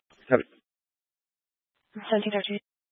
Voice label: Fire Department Dispatch; Grand Junction

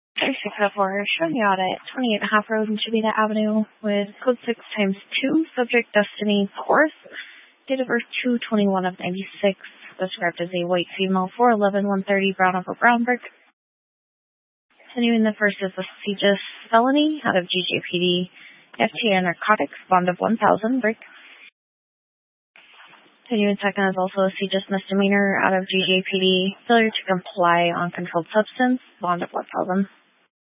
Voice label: Police Dispatch, scanner; Police 1 Dispatch

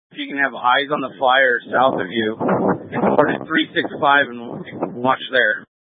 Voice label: Incident Tac, scanner; Incident Tac 1

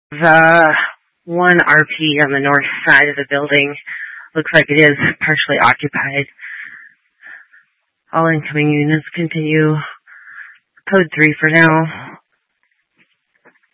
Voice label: Tactical Incidents, scanner

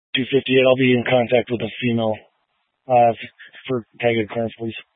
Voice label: Incident Tac 3, scanner

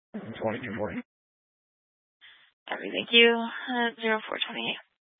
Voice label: Sheriff Dispatch, scanner; Mesa County

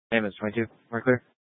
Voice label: Grand Junction Fire Department Dispatch

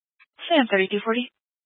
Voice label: Police 1 Dispatch

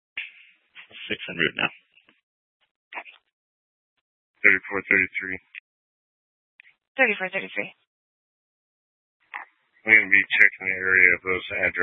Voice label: Police Dispatch, scanner; Police 1 Dispatch

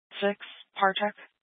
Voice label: Fire Department Dispatch, scanner

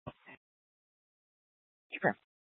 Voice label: Police Department Dispatch, scanner

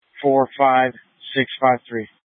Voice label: Police Department Dispatch, scanner; Grand Junction

